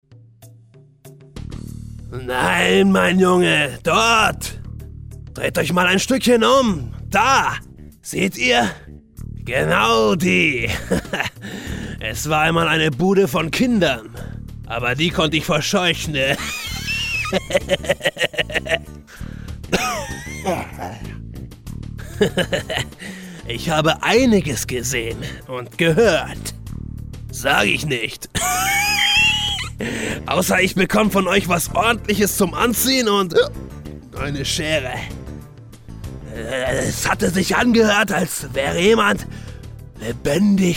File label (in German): Sprechprobe Penner Hörspiel; Penner Karl; Studis Clan 5